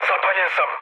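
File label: Serbian on fire mood low, speech; War Thunder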